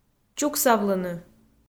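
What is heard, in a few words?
"чуксавлыны", Komi, female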